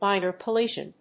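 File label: "minor collision", English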